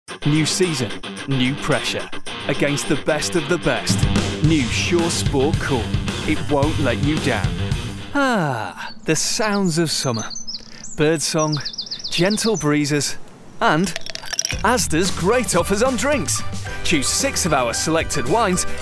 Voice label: commercial